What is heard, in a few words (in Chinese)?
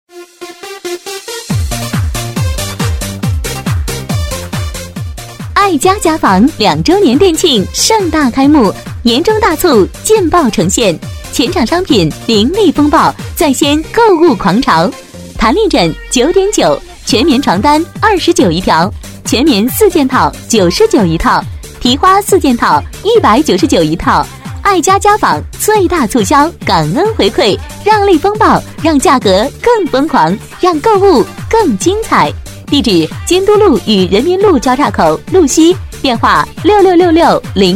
促销广告